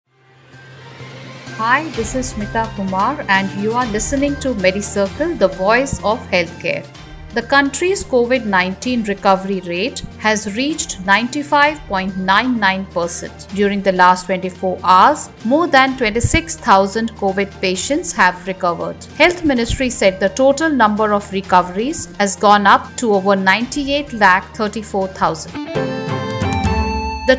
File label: english news